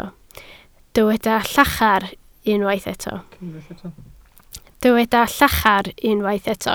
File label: "llachar", Welsh